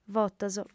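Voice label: "votos", Sardinian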